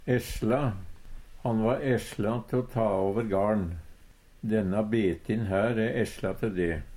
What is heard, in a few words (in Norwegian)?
"esjla", Numedalsmål; Uttrykk; Han va esjla te o overta garn. Denna betin her e esjla te de.